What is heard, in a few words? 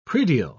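"predial", British English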